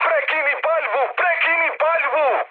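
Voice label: Serbian player friendly fire mood high; speech; War Thunder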